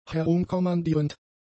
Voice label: "herumkommandierend", German; adjectives

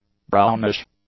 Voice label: "brownish", English; adjectives

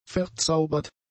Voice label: "verzaubert", German; adjectives

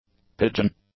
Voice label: "pigeon", English; nouns

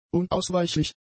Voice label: "unausweichlich", German; adjectives

